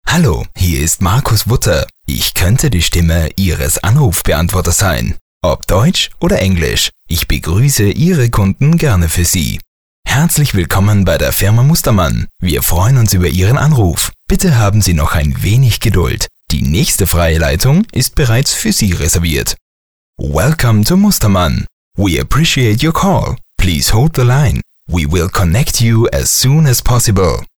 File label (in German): Sprecher Telefonansagen